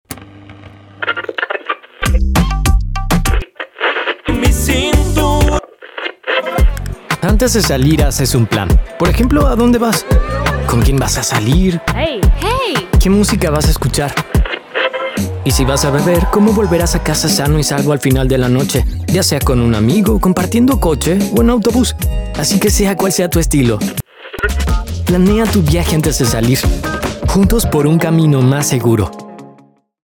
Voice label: DUI Spanish; WTSC; plan ahead wtsc